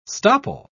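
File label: "stopple", English, British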